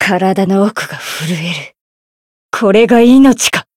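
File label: encounter fear, Japanese; hiina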